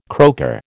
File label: "croaker", American